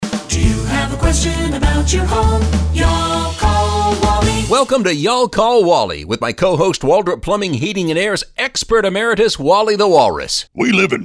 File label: radio ads; Magical Times